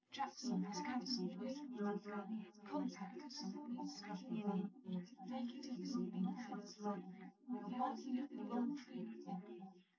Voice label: female, live rec